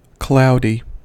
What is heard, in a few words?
"cloudy", us